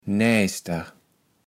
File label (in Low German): "Näijster", Platt